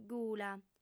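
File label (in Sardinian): "pula", female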